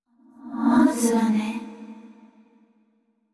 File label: surprised; yamiko